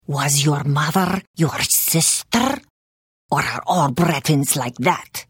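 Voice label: Hello, Khajiit female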